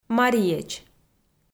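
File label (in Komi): "мариеч", Komi-Zyrian, female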